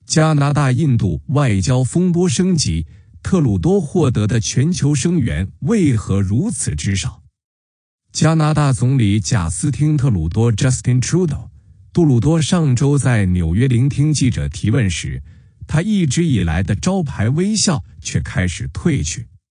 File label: Chinese news